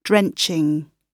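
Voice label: "drenching", British English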